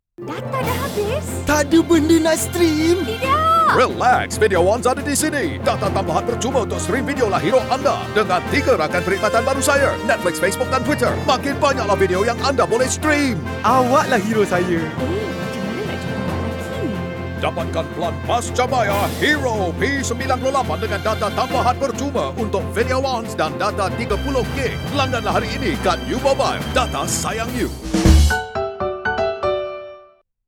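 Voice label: Malay; U MOBILE; VIDEO ONZ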